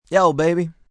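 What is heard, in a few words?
Yo Baby Male, Greetings, male; Greetings And Goodbye; VoiceBox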